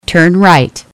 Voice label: "Turn Right", eng; waze